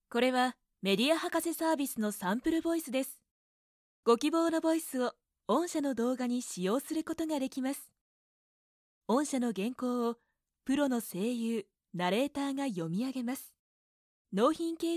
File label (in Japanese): yasasii, narration sample voice women